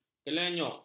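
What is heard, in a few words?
"kelenyuk", Malay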